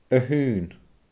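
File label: "a-h-aon", Scottish Gaelic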